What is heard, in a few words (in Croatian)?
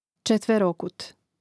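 "četverokut", Hrvatski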